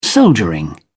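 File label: "soldiering", English, British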